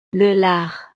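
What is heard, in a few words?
"le lard", French; desayuno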